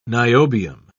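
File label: "niobium", British English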